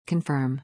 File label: "confirm", English; 確認する